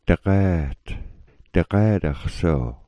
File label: "dagat", smalgyax